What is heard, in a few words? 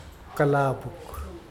"alone", Mokilese